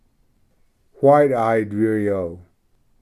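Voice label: "white eyed vireo", English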